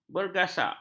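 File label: "bergasak", Malay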